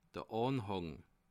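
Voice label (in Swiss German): "anhang", Bas Rhin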